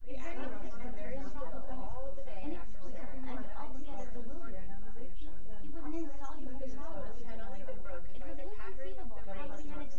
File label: female synth conv 5cm sim, female; SASSEC07